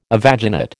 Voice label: "evaginate", American